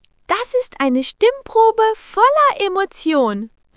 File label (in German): Kind, Stimmen; moods